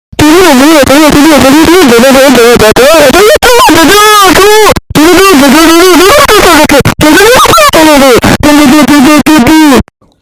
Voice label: loud yapping